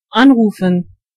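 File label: call, German voices words; gcompris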